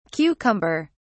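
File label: "cucumber(s)", British English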